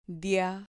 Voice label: "dia", Spanish syllable

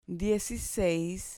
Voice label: "dieciseis" Spanish

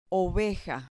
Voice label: "oveja", Spanish

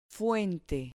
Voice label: "fuente", Spanish

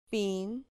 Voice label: "pin", Spanish syllable

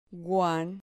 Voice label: "guan", Spanish syllable

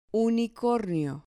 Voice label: "unicornio", Spanish

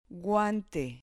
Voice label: "guante", Spanish

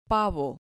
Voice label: "pavo", Spanish

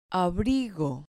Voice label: "abrigo", Spanish

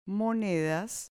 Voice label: "monedas", Spanish

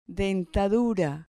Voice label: "dentadura", Spanish

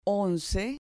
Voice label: "once", Spanish

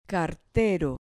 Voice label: "cartero", Spanish

